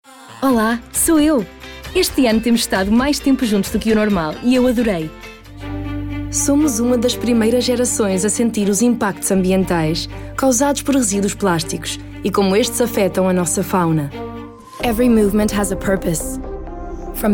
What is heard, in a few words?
PT ENG ES Voice Reel